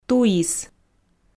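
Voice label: "туис", Komi, female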